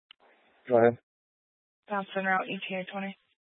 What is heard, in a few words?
Police Dispatch